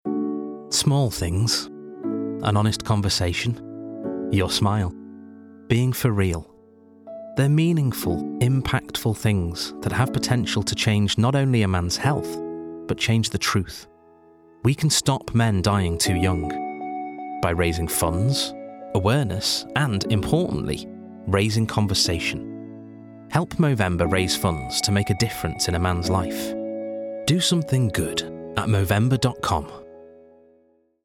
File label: male english light northern accent appeal; movember; Devine Voices